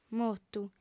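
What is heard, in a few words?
"motu", female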